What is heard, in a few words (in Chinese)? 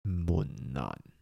"蚊𧕴", 台城, 男